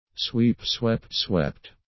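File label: "sweep swept swept", English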